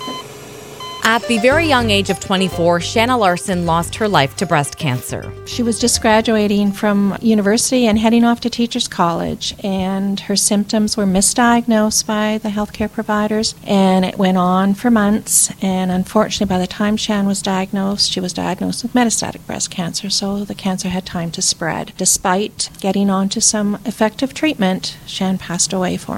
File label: radio; healthbeat